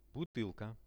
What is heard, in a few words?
"butylka", Russian; posuda